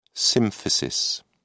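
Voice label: "symphysis", English, British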